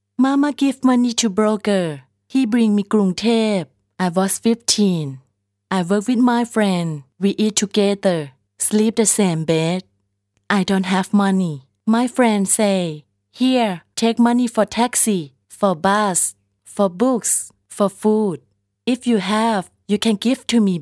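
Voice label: Thai female voice over, English; thai voice over talent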